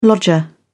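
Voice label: "lodger", British English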